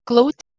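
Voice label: "kleut", Proto-Indo-European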